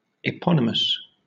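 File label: "eponymous", British English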